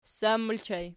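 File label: ssan ergative plural, Archi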